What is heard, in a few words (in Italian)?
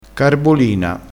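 "carbolina", dialettale crespinese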